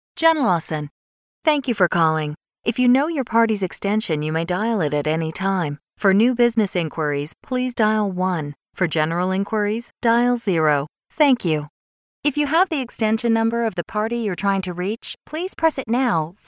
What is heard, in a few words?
Messaging IVR